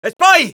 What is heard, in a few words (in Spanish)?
cloaked spy, es; Scout